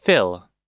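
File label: "fill", US English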